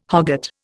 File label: "hogget", Indian